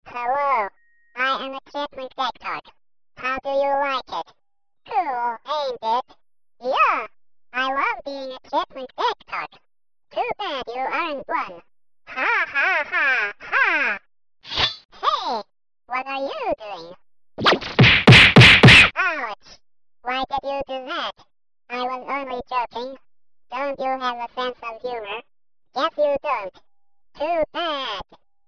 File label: chipmunk dectalk